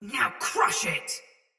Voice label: Attack Heavy Soldier Mace Agent Female; Battle; Sinecure Melee